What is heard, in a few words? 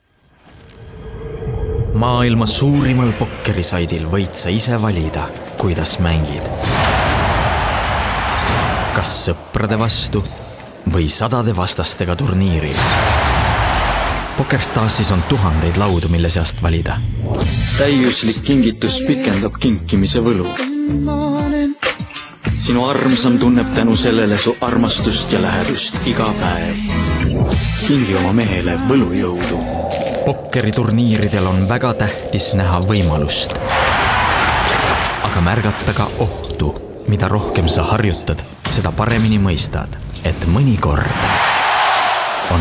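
estonian male commercial; voice demos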